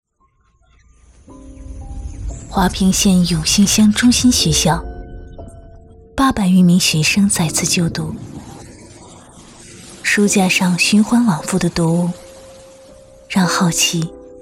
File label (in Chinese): 女 宣传片 公益乡村; 公益乡村华坪